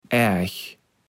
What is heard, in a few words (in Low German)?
"erg", kaevels platt